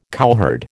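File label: "cowherd", American English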